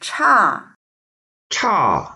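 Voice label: "cha4", Mandarin Chinese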